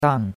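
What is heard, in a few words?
"dang4", Chinese; Cours-de-Chinois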